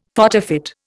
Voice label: "photofit", Indian; Dictionary

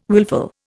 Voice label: "willful", Indian

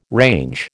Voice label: "range", American

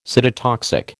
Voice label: "cytotoxic", American

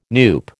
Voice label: "NUPE", American

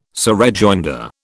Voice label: "surrejoinder", British

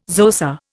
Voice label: "Xhosa", Indian

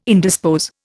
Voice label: "indispose", Indian

